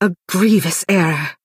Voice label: female oops; Dunmer

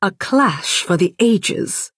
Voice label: Altmer female "good game"

